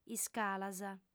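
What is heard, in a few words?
"iscalas", Sardinian, female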